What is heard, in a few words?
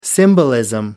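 "symbolism", English, British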